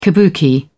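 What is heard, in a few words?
"kabuki", British English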